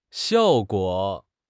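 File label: "xiao4 guo3", Mandarin Chinese; HSK4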